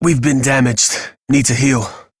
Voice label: Low Health Operator Voice, en; Operator Mission

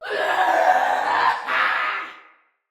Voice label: Battle Cry, Male, en; Barks; Dialog; Sinecure Banneret

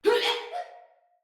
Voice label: Death Finisher, Male; Battle; Barks; Dialog; Sinecure Banneret

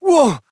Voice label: Fail Interjections, Adult, en

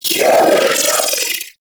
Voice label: Vocal Attack; Warframe; Sentients; Battalyst

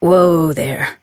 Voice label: Horse Stop Walk Adult; Duviri

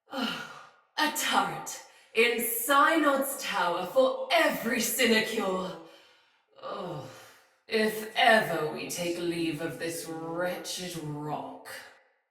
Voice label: Patrol Spoken, Soldier Female; Battle; Barks; Dialog; Sinecure Soldier Female A

